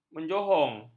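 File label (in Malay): "menjohong", Malay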